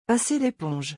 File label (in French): "passer l'éponge" French